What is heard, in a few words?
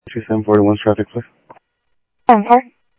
Sheriff-EastCnty, scanner audio